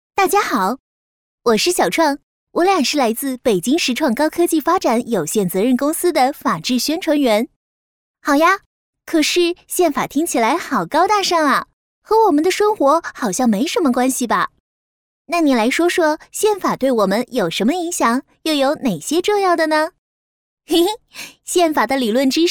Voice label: 女, 人物篇, 活泼女声

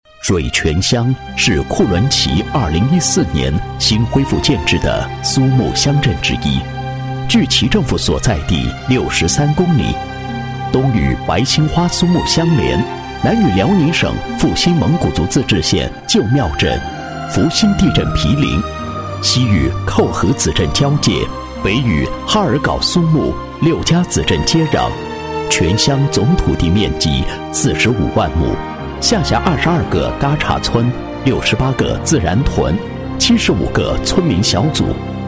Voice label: 男 城市地区宣传, 配音; 水泉乡宣传介绍

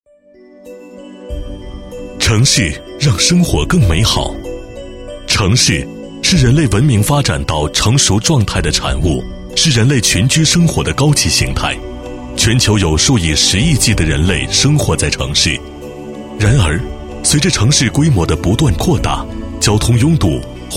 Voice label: 男 科技企业宣传 宣传配音; 北斗智城

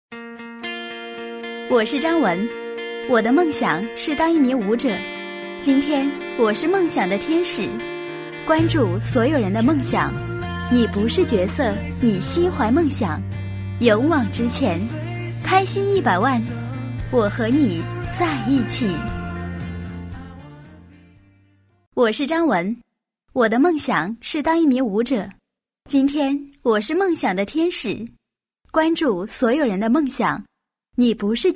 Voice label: 女 自述; 梦想天使

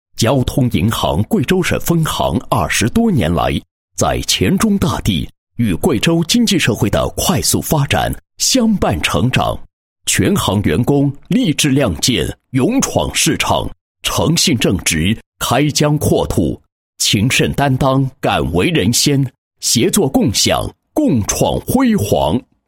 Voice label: 男 企业 宣传片; 贵州省分行

